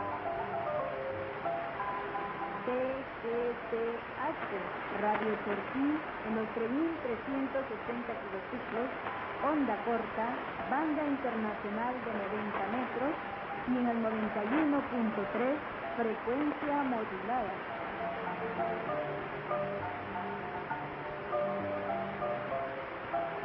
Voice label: Jocotan 3380 kHz, Guatemala; Radio Chortis